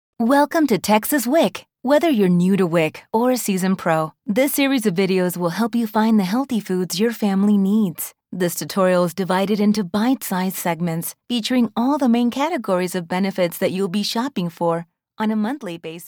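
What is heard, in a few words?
English Explainer Corporate, Female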